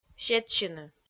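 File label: "сетсьыны", Komi, female; kpv audio dict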